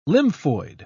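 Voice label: "lymphoid", English, British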